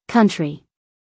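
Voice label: "country", American English, female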